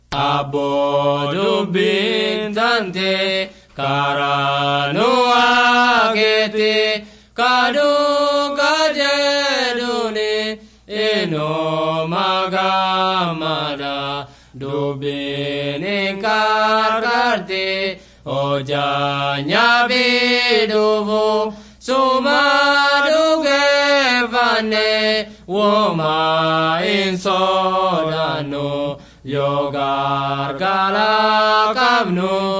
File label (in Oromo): borana gabbra; words of life